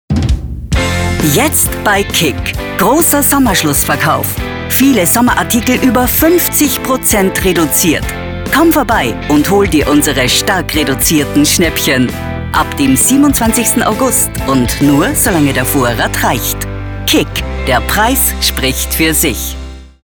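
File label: Austrian German, female, commercial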